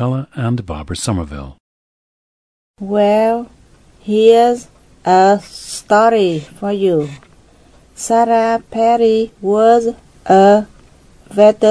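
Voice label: vietnam, International Dialects of English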